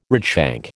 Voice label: "redshank", American

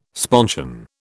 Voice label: "sponsion", British

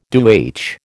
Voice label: "DoH", American

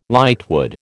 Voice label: "lightwood", American

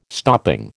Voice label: "stopping", American

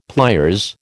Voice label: "pliers", American

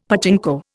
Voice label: "pachinko", Indian; Dictionary

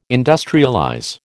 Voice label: "industrialize", American

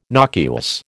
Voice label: "nocuous", American

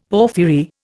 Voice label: "porphyry", Indian; Dictionary

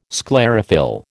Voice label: "sclerophyll", American

